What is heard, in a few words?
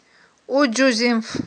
"уджузинф", Aghul